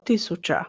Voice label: "tisuca", Croatian

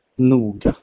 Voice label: "noga", Proto Germanic

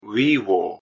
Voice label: "vivo", Latin

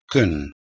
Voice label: "kun", Proto-Germanic

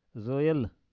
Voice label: "zoyal", Pashto; chew JOWL

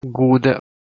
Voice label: "goda", Proto-Germanic

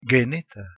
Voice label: "geneta", Ancient Greek

